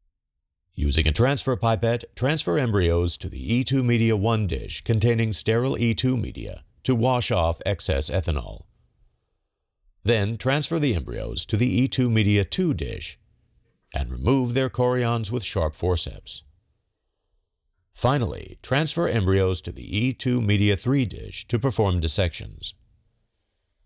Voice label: Voiceover